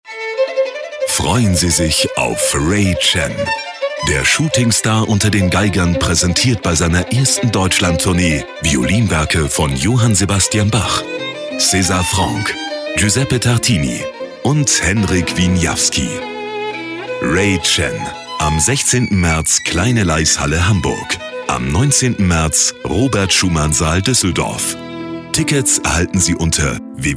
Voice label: Radiowerbung; DEAG

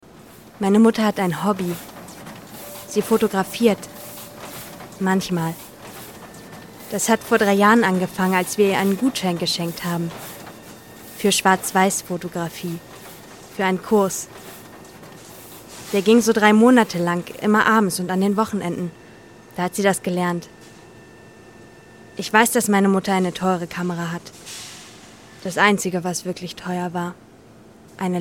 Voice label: offsprecher

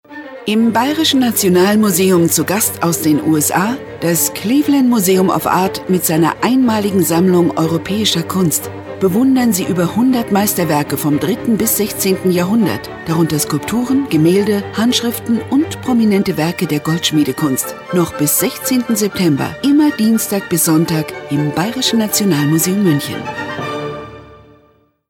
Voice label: Radiowerbung

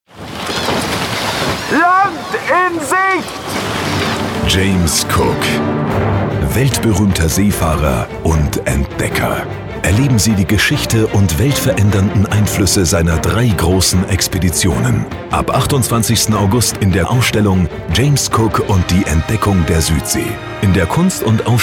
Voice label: Radiowerbung; James Cook

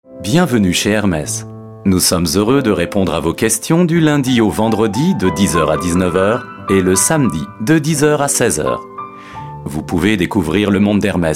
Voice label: Warteschleife; Hermes

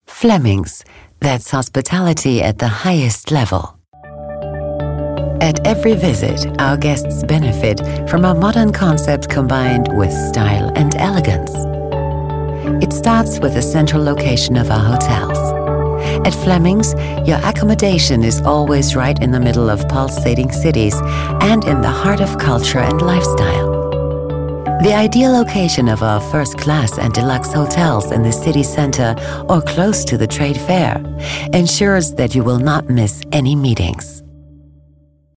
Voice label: Imagefilm englisch

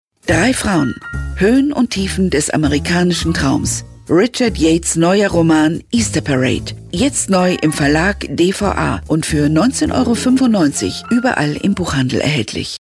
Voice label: Radiowerbung; Buch

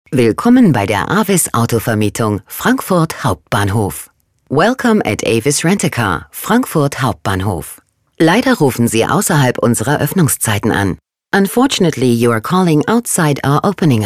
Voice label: Wareschleife DE

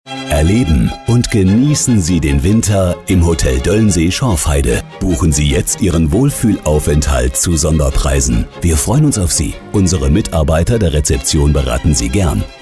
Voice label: Radiowerbung

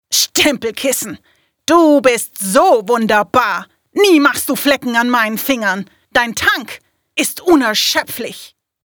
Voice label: aggressiv

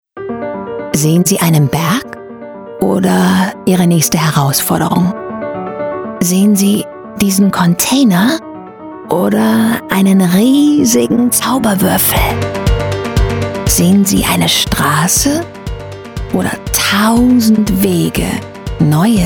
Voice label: Werbung

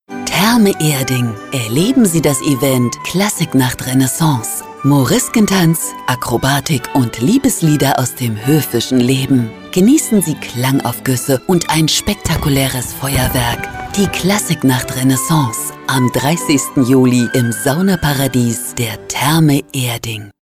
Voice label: Radiowerbung

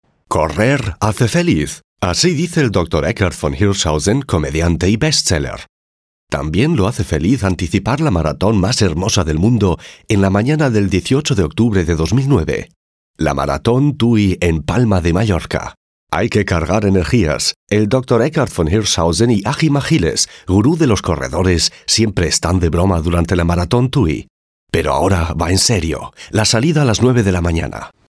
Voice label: Reportage

